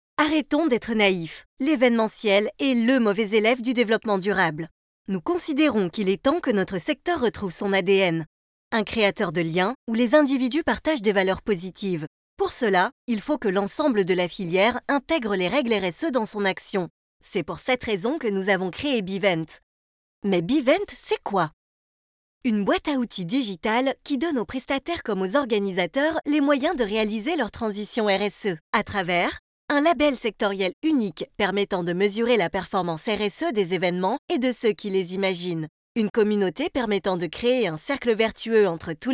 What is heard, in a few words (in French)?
voix off institutionnel corporate; Beevent